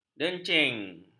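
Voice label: "dencing", Malay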